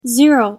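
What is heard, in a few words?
"zero", English pronunciation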